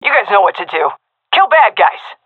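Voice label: round start, female; vo; Counter Strike Model Pack; CS:GO; seal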